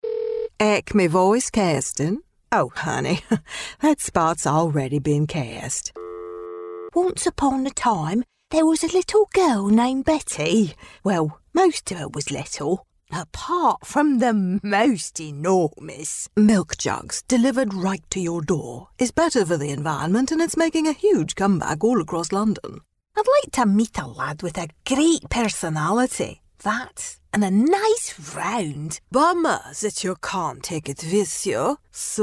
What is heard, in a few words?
accent samples